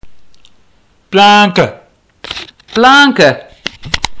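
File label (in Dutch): "Plaanke", Stellingwerfs; stellingwerver woorden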